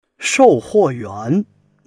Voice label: "shou4 huo4 yuan2", Chinese